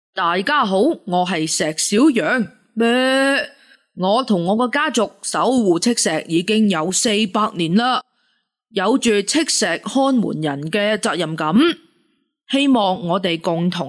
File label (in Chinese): 卡通 动物声 小羊; 石小羊